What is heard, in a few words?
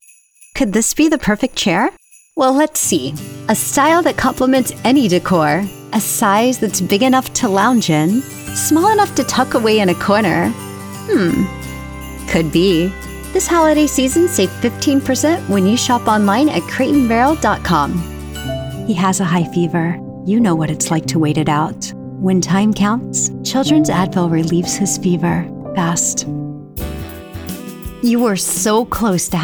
Commercial Demo